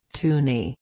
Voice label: "toonie", canada; girias